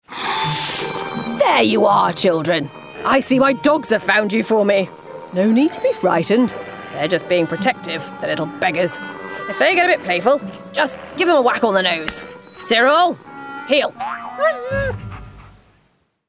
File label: Animation Jolly Major RP